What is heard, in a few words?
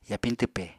"yapiñ twpe", Apatani